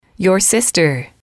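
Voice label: "sister", English, female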